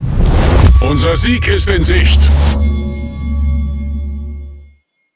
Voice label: Speech Domination Ally Close Win, DE; World Of Warships